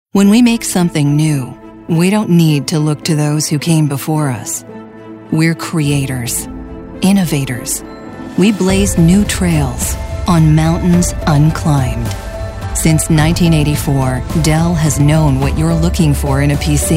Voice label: English American corporate videos

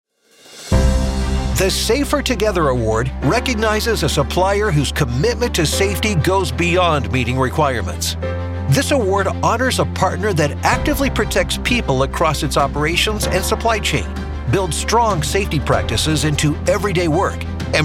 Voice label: english american announcements

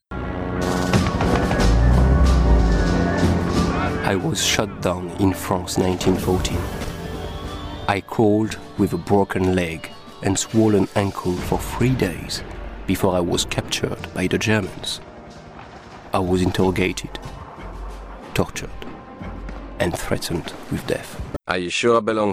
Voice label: english narration

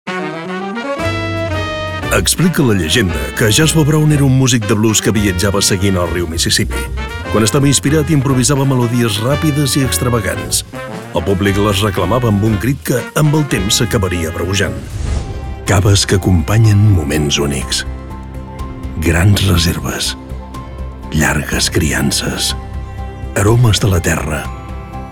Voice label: catalan commercial, elegant

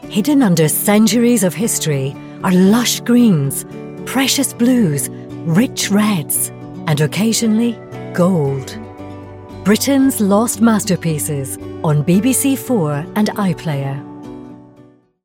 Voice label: english british promos

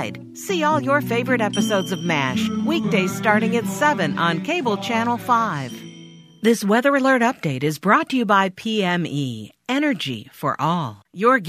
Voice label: English American promos

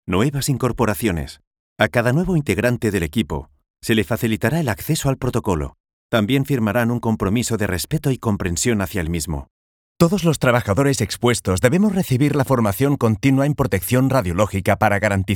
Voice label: spanish e-learning, spanish voice actors

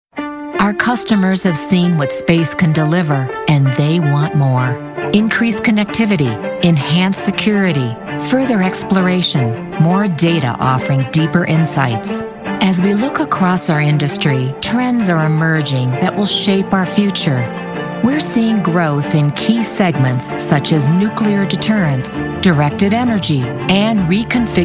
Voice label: english american narration; Inglês (dos Estados Unidos); Estados Unidos da América

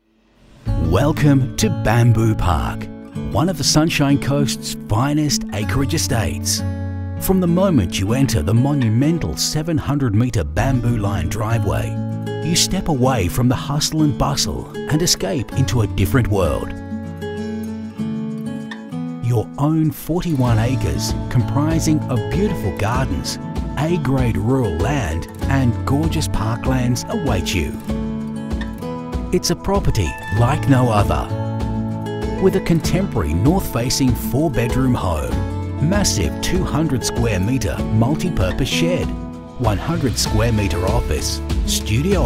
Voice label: english australian narration

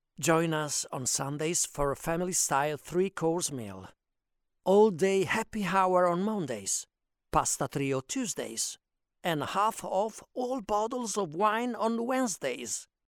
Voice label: english commercial

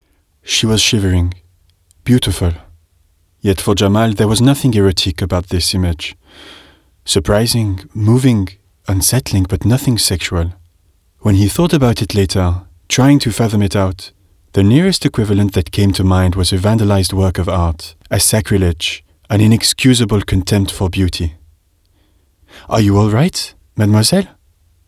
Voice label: english british audiobooks

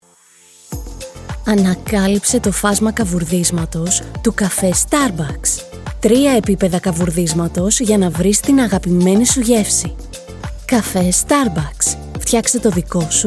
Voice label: greek commercial; Grecia; Reino Unido; actriz, cantante y locutora profesional griega

